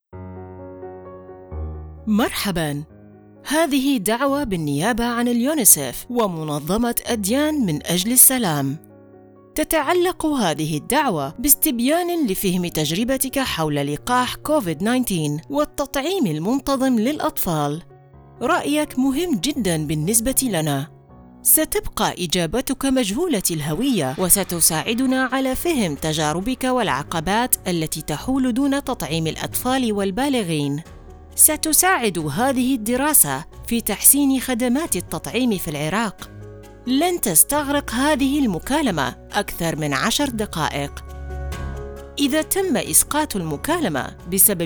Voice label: IRAQ UNICEF Faith surveys IVR, Iraqi Voiceover